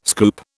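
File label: "scoop", British English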